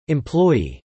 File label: "employee", English